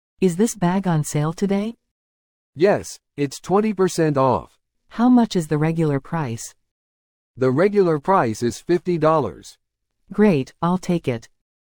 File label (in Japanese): トーク ノーマル